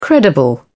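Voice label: "credible", British English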